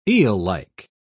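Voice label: "eellike", British English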